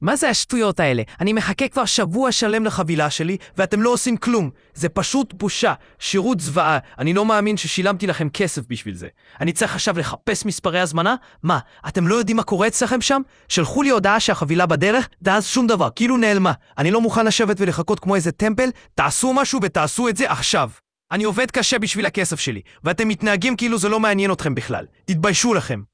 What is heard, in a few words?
old timey; openai-fm; onyx